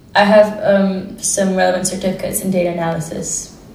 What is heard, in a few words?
interviewee female